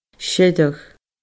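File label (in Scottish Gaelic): "sireadh", Scottish Gaelic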